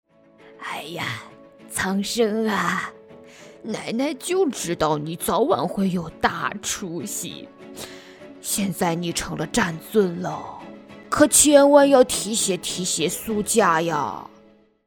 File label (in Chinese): 影视角色 老太音